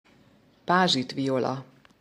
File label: "pázsitviola", hungarian; Aubrieta Rock cress; hungarian flower flashcards